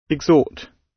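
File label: "exhort", British English; Design and innovation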